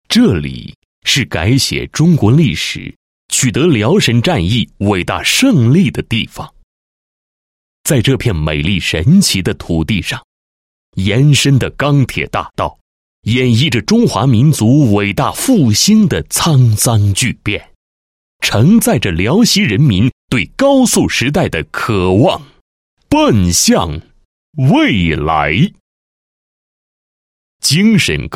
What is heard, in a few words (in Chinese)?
男 解说 高速铁路客运专线解说; 京沈高速铁路客运专线解说